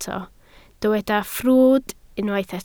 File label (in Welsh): "ffrwd", Welsh